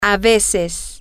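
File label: sometimes, Spanish, female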